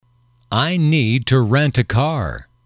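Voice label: "I need to rent a car", English, male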